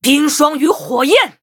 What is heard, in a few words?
Attack, zh